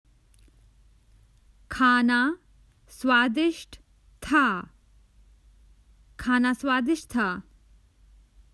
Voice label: The food was delicious, Common Hindi Phrases for travel